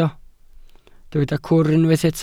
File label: "cwr", Welsh